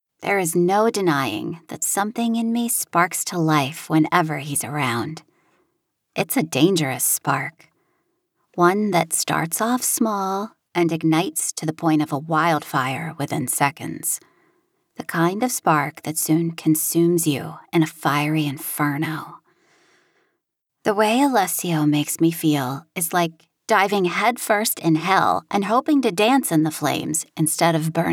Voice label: Romance, audiobooks